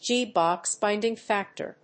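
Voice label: "G-box binding factor", English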